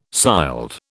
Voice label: "sild", British